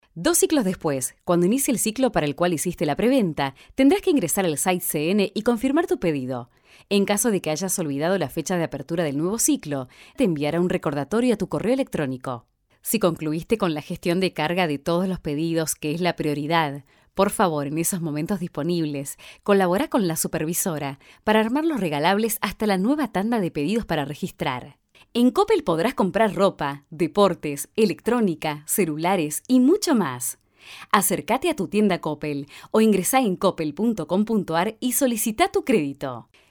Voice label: spanish argentinian training e-learning